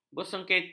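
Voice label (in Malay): "bersengketa", Malay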